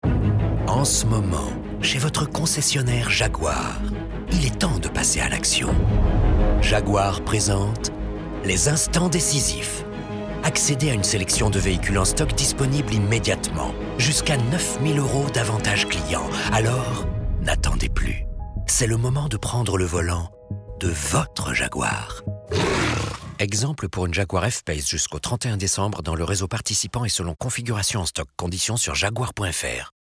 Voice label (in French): bande annonce; JAGUAR